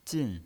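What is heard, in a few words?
"jin", fourth tone, pinyin voice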